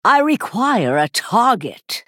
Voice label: female night elf; error target

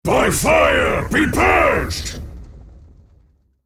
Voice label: male elemental attack

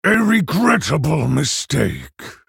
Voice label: male orc ogre oops

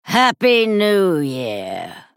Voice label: female night elf happy new year lunar

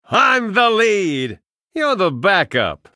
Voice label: male human greetings

